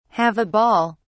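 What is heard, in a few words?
"have a ball", English, uk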